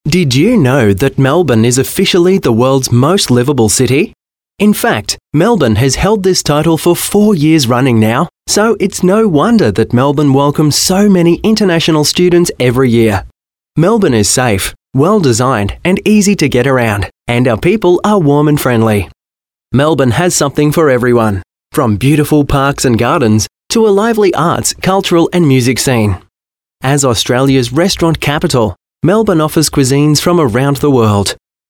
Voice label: Male Talent corporate